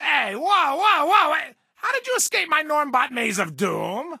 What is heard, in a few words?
English Voice